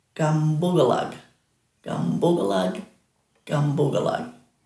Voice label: "gambugalag", Dhurga

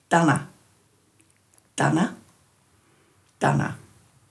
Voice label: "dhana", Dhurga

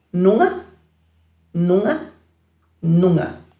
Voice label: "nunga-", Dhurga

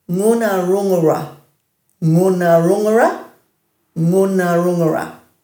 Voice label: "ngunarungura-", Dhurga

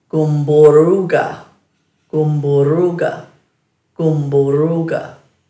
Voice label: "gumburruuga", Dhurga

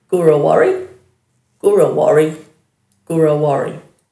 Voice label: "gurawari", Dhurga; white swan

